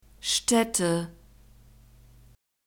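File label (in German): "städte", German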